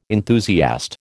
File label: "enthusiast", American